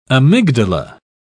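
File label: "amygdala", British English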